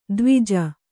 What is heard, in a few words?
"ಧ್ವಜ", kannada